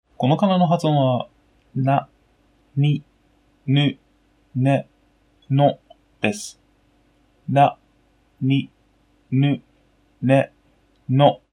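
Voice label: naninuneno, Japanese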